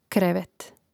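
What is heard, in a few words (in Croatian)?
"krevet", Croatian; Mrežnik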